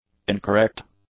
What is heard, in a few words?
"incorrect", English; adjectives